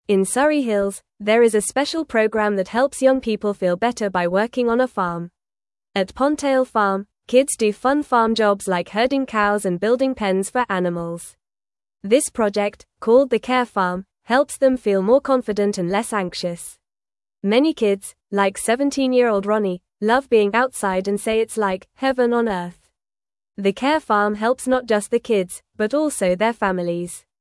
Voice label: English Lower Intermediate FAST Reading; English Newsroom; Happy Kids at Pondtail Farm with Animals

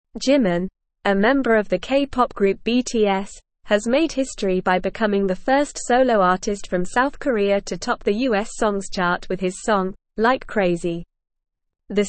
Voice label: English Newsroom Beginner NORMAL Reading; K Pop Star Makes US Chart History

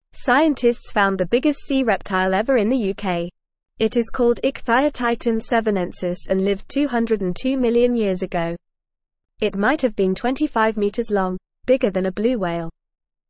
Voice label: English Newsroom Lower Intermediate FAST Reading; Giant Sea Creature Bones Found in UK

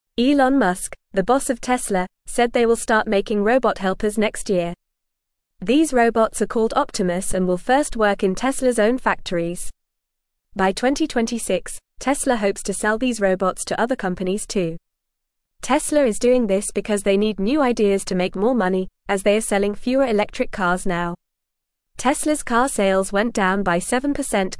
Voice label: English Lower Intermediate FAST Reading; Teslas New Robot Helpers Will Come Soon; English Newsroom

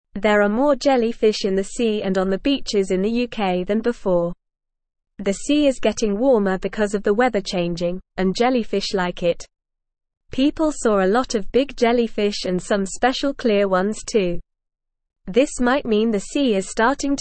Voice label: English Newsroom Beginner NORMAL Reading; More Jellyfish Seen in UK Because of Warm Sea

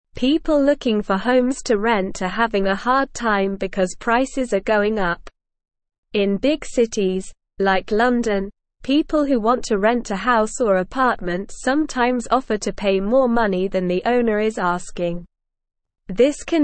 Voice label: English Newsroom Lower Intermediate SLOW Reading; Renting Homes Making it Fair for Everyone

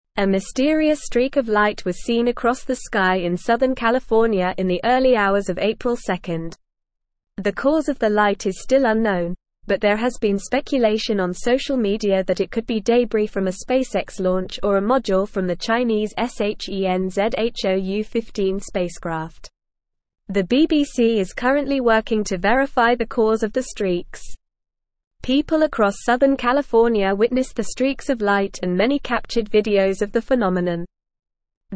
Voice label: English Newsroom Advanced NORMAL Reading; Mysterious streaks of light in southern California sky

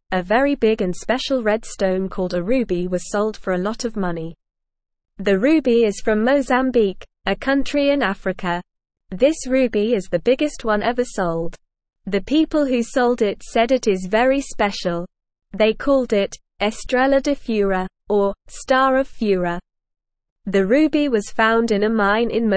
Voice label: English Newsroom Beginner NORMAL Reading; Big Red Ruby Sold Money Helps People

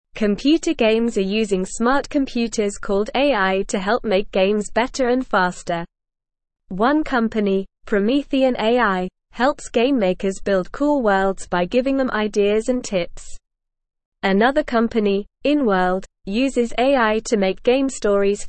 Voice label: English Newsroom Beginner NORMAL Reading; AI Makes Games Better and More Fun

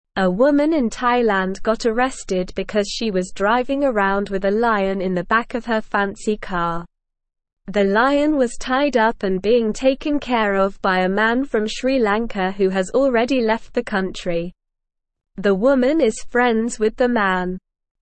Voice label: English SLOW Reading; English Newsroom; Woman Arrested After Viral Video of Lion in Bentley

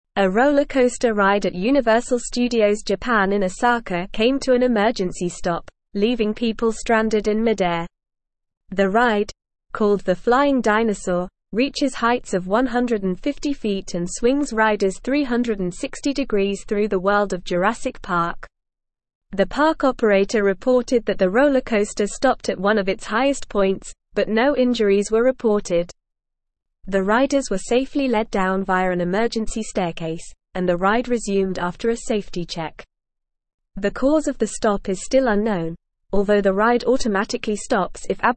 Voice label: English Newsroom Advanced NORMAL Reading; Rollercoaster at Universal Studios Japan stops mid air

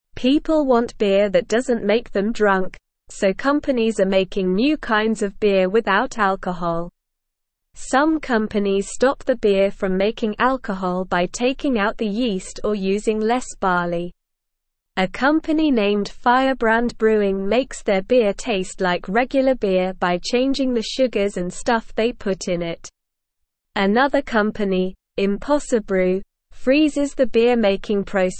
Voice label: English SLOW Reading; Companies Make Clever No Alcohol Beer for Everyone

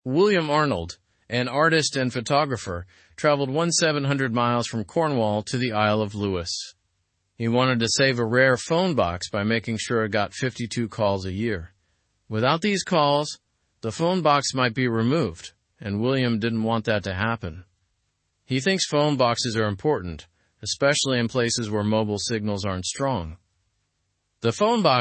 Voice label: english news lower intermediate normal; man travels to save rare phone box

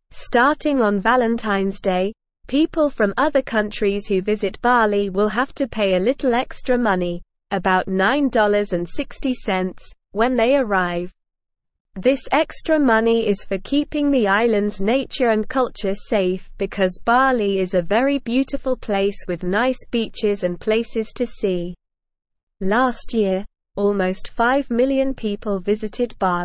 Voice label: English SLOW Reading; New Money Rule for Visitors to Bali

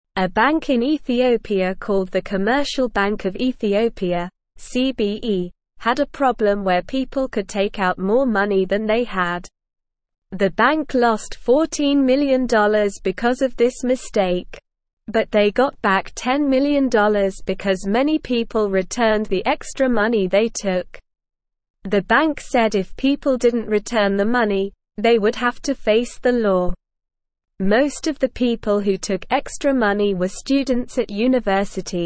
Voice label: English Lower Intermediate SLOW Reading; Bank loses 14 million due to computer mistake

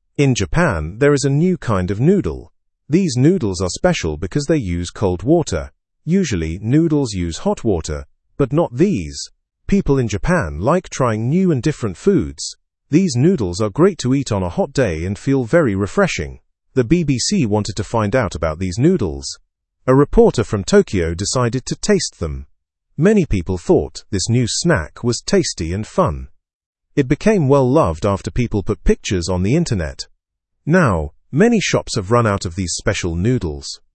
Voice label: learn english through news beginner fast; cold water cup noodles in japan

